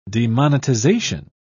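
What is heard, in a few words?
"demonetization", British English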